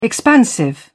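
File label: "expansive", British English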